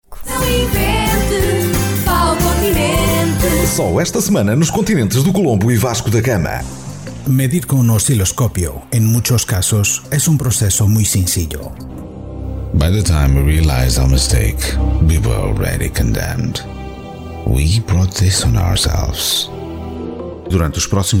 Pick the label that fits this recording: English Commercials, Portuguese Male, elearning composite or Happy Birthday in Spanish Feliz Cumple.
Portuguese Male